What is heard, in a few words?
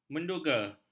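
"menduga", Malay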